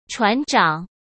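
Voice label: "船长", Mandarin Chinese; chinese-flashcards; hsk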